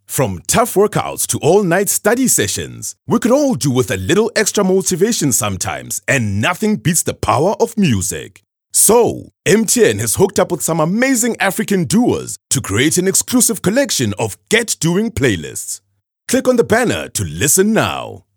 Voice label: Deep Voice; MTN